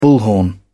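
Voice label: "bullhorn", British English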